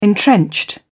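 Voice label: "entrenched", English, British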